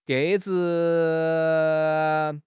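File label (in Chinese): "鸽子", Chinese; HSK6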